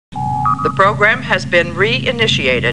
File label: Star Trek Computer Voice; StarTrekLCAR